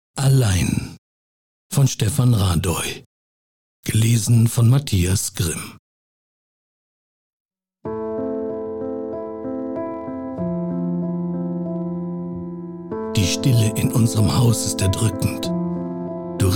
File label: Audiobook (Hörbuch), German; "Allein" von Stefan Radoi